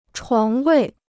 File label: "床位", Chinese